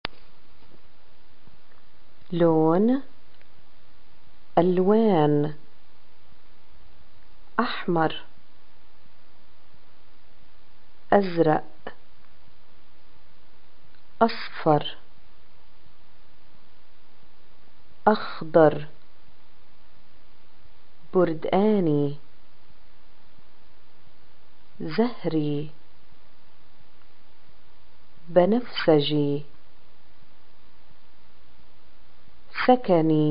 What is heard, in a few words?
colors, arabic, pl